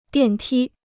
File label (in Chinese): "电梯", Chinese